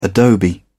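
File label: "adobe", British English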